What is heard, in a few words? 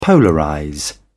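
"polarize", British English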